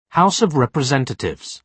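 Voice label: "house of representatives", British English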